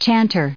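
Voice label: "chanter", US English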